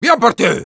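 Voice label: Sniper good job, Spanish; "¡Bien por ti!"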